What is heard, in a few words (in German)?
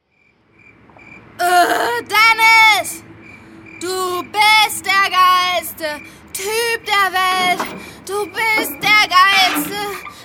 besoffen; Berlin; Muttersprache Deutsch